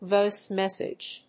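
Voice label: "VOICE MESSAGE", English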